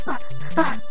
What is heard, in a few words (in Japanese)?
ダメージボイス; 悪魔城ドラキュラ(FC版)